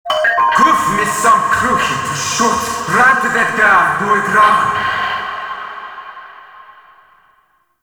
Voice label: interior orbital station announcement; Warframe; Tau

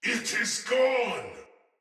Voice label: Sight Lost Shout, Barks Battle Soldier; Sinecure Soldier

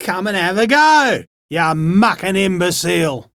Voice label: Enemy Spotted Chipper; Dialog; Barks; Battle; Warframe

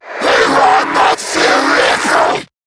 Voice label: Cover Me, en; Zariman Wraith

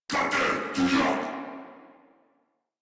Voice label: Player Spotted, Battle Barks

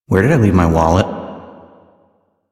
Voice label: Citizen Male, en, Mall; Citizens; Warframe

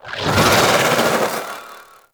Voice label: Vocal Attack; Warframe; Sentients; Conculyst

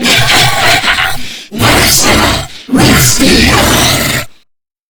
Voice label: Boss Speak Game Taunt; Warframe; Lech Kril

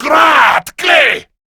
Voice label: Surprised, Male; Warframe; Grineer Male B; Battle Barks

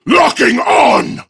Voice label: Enemy Spotted Prime Grineer Male

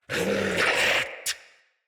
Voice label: Attack Knight Male, Battle; Mendicant

